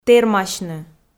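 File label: "тэрмасьны", Komi, female; audio dict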